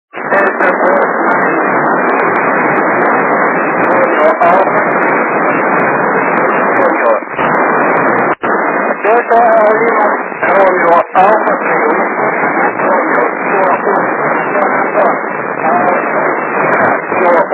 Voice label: fm